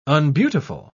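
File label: "unbeautiful", British English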